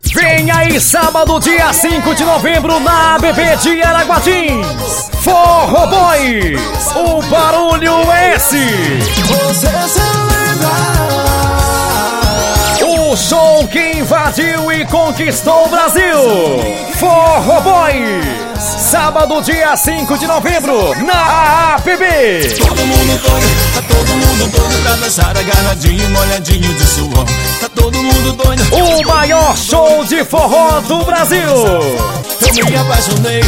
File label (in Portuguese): spot carro de som